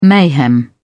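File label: "mayhem", British English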